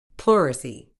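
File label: "pleurisy", medical English, British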